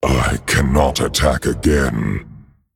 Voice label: Male Dragon ERROR I ATTACKED

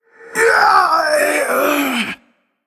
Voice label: Undead Troll Emote Death

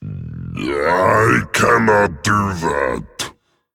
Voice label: Male Dragon Evil Fight Error

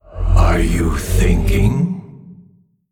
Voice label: Male Old God Emote Thinking

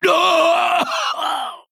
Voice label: Male Dwarf Death

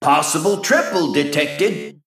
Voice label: Male Mech Triple

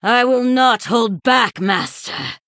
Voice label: Female Sanlayn Emote Start

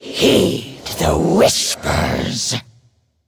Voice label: Female Kthir Attack

